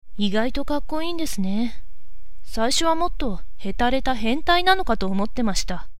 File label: voice otoko